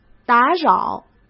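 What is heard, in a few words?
"打扰", Mandarin Chinese; hsk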